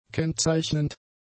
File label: "kennzeichnend", German; adjectives